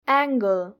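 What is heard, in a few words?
"angle", Nepali; grade3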